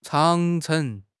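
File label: "Chang2 cheng2", Mandarin Chinese; 汉语口语新时代-初级下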